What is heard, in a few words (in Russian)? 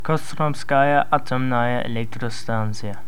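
"Костромская атомная электростанция", Russian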